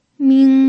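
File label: "ming", pinyin, first tone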